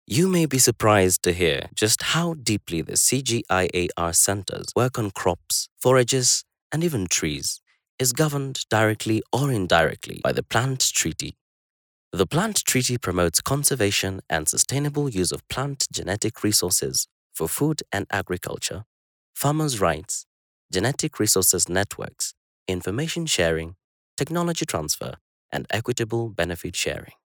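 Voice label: African English, male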